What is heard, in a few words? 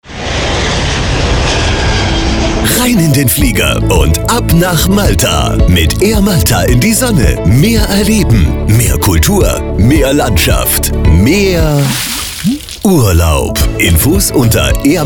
Air Malta corporate